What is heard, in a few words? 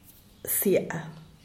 "siab", atole; Díaz Ordaz